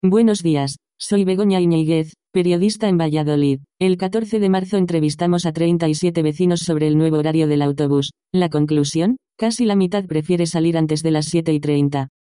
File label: spain practice, spanish